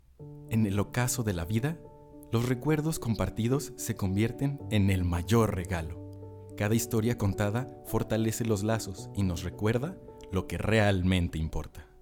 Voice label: voz emotiva musicalizado, locutor

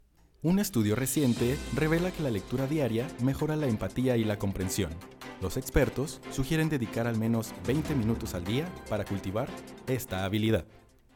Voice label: voz informativa musicalizado, locutor

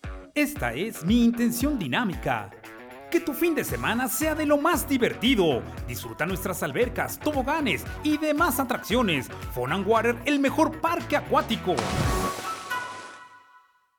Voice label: dinamica, locutor

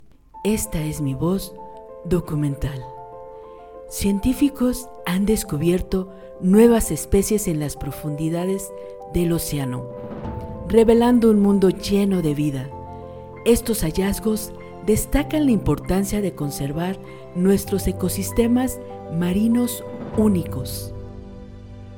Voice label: Documental Musicalizado; locutora

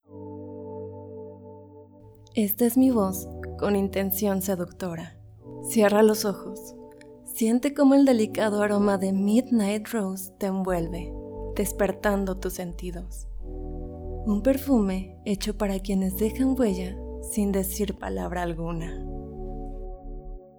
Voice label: Seductora Musicalizado, locutora

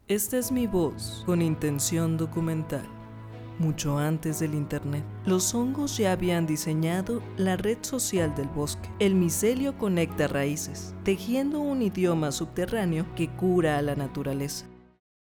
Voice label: Documental Musicalizada, locutora

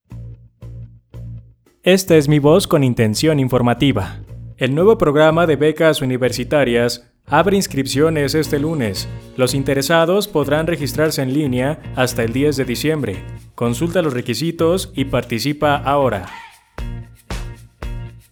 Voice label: Informativo Musicalizado, locutor

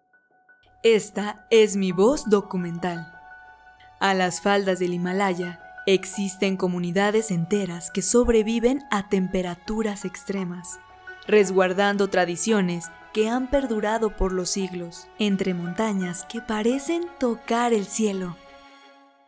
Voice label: Documental Musicalizada, locutora

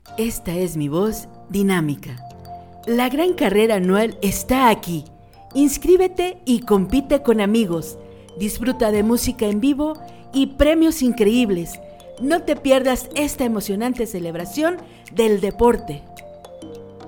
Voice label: Dinamica Musicalizado, locutora

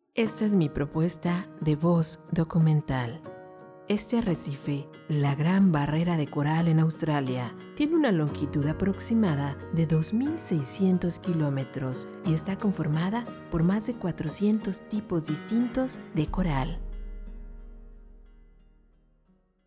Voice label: Documental, locutora

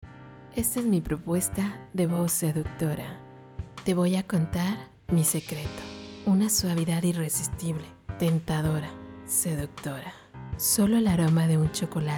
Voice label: Seductora, locutora

